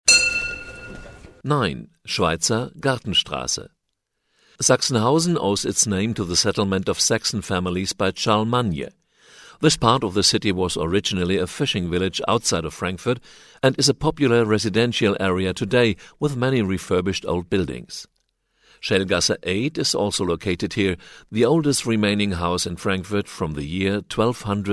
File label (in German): Englisch; Ebbelex; Entlang der Strecke; Schweizer Gartenstrasse